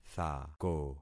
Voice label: "thako", concat syllables; Affixing experiment